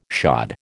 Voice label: "shod", American